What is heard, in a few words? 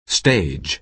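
"stage", American English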